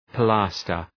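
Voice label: "pilaster", English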